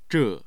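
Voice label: "zhe4", Mandarin Chinese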